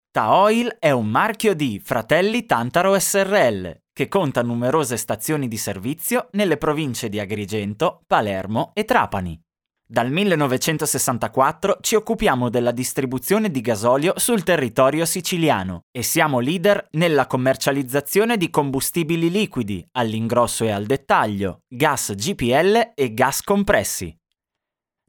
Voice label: Italian Male, Kurumsal, Reklam, Eğitim; Erkek; İtalyanca